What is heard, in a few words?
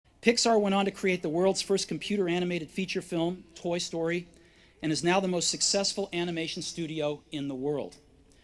Commencement Address; Steve Jobs; 2005; Stanford